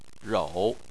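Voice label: "rou", Chinese, tone 3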